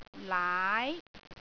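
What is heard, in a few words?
"laai2", Cantonese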